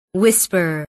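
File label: "whisper", English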